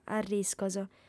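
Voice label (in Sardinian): "arriscos", female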